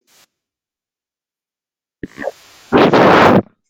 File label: voice message; whatsapp